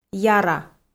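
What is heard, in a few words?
"яра", Komi, female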